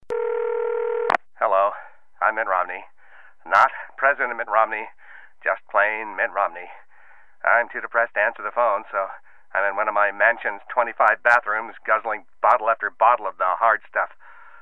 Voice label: voicemail; mitt romney s